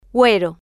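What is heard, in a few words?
"guero", Spanish, female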